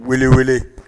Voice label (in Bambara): "welewele", Bambara